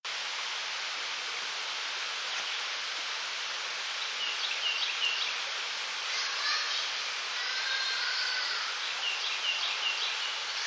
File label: child speaking